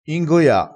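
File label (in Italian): "ingoia", crespinese dialettale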